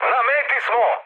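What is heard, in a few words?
Serbian near waypoint mood high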